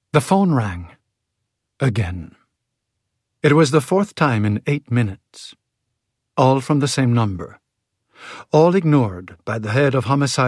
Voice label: male french canadian english with slight canadian accent; louise penny the grey wo